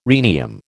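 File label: "rhenium", American English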